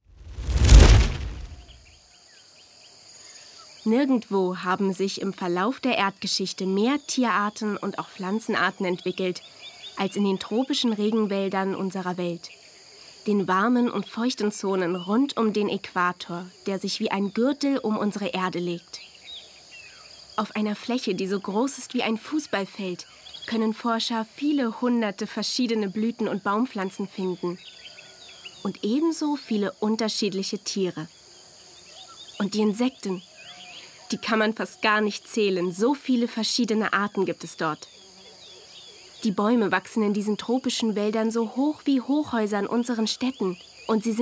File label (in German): de elearning, deutsch frauen; sprecher